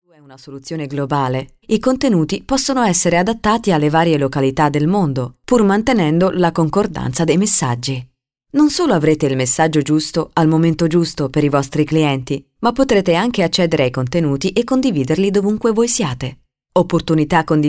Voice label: Narration Italian Female